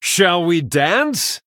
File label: Greetings, Male Human; en